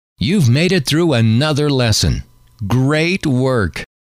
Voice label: You've made it through another lesson, great work, M